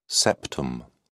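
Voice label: "septum", British English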